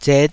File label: "zet5", taiwanese syllables